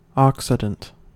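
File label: "occident", US English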